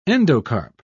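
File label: "endocarp", British English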